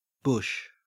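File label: "bush", Australian English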